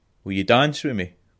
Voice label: dance, scots

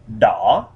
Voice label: red, Vietnamese

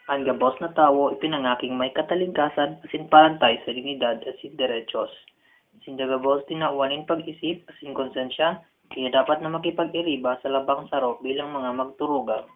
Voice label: Bikol

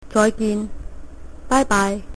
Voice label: goodbye, cantonese

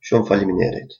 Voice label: thanks, albanian gheg

